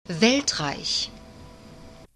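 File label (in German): "weltreich", German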